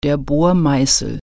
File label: "drill bit", German